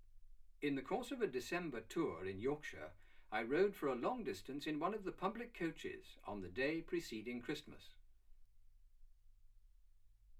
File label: male speech english